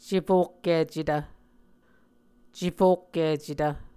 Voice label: "cefokkicetv", muscogee; Mvskoke Words with Repeated Consonants; Onvkv Ohhvyayices; A Dictionary of Creek/Muskogee; SIL International

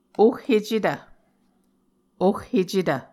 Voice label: "ohhecetv", Muscogee; Mvskoke Words with Repeated Consonants; Onvkv Ohhvyayices; A Dictionary of Creek/Muskogee; SIL International

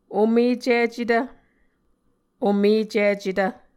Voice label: "omecicetv", Muscogee; Mvskoke Words with Voicing Consonants; omēcicetv c / j; A Dictionary of Creek/Muskogee; SIL International

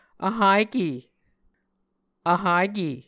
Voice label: "vhake", Muscogee

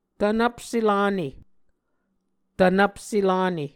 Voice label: "tvnvpse-lane", muscogee

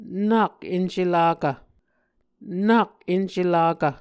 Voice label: "nak encelakv", Muscogee

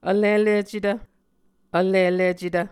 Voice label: "vlilicetv", Muscogee

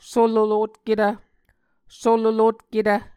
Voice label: "sololotketv", Muscogee

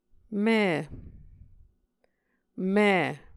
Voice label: "mi", Muscogee

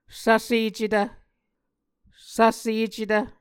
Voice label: "svsecetv", Muscogee

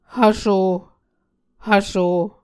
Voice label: "hvso", Muscogee

